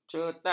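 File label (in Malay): "cetai", Malay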